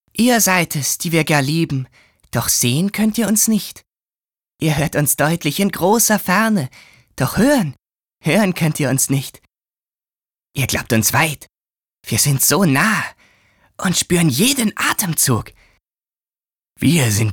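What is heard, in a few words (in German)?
Charge, Sprecher, Männer; Akzente; Charge+Akzente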